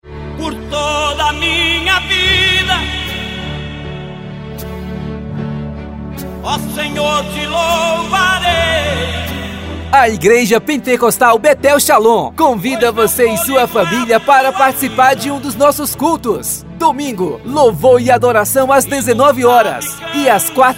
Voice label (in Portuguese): voz do locutor; igreja petencostal betel